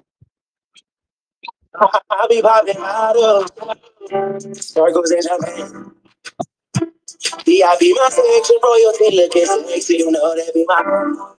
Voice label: voice message